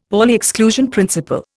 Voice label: "Pauli exclusion principle", Indian; Dictionary